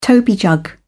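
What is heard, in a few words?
"toby jug", British English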